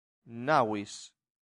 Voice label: "navis", latin; santillana